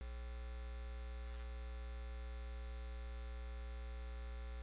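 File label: "ding", spoken word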